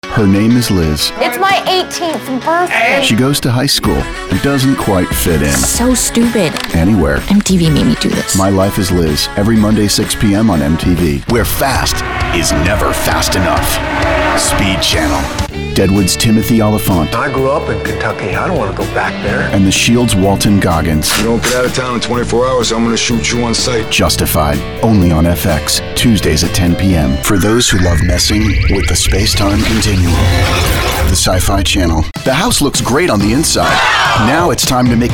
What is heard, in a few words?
Promo